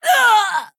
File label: Female Orc Death